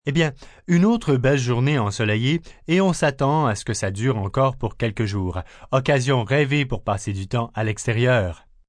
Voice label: Sunny Day, Broadcast, weather, male weather report in French, beautiful day, news; VoiceBox